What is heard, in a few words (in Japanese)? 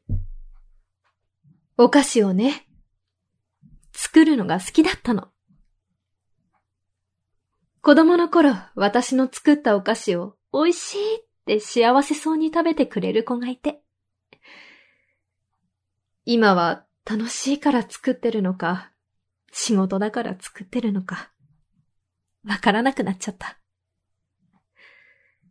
ナレーション